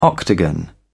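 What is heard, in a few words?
"octagon", English, British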